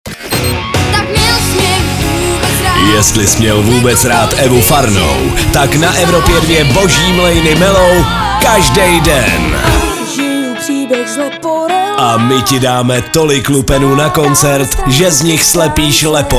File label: liner; EVROPA 2